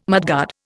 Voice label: "mudguard", Indian